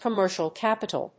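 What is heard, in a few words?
"commercial capital", English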